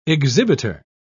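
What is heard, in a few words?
"exhibiter", British English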